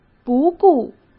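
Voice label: "不顾", Mandarin Chinese; hsk; chinese-flashcards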